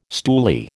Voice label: "stoolie", American

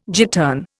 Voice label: "gittern", Indian

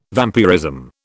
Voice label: "vampirism", British

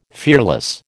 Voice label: "fearless", American

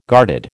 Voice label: "guarded", American

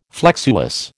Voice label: "flexuous", American

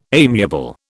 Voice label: "amiable", British

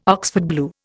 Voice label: "Oxford blue", Indian

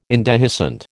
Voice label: "indehiscent", American

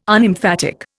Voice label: "Unemphatic", Indian

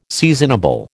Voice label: "seasonable", American

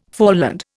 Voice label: "foreland", Indian

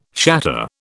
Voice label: "shatter", British

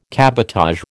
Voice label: "cabotage", American

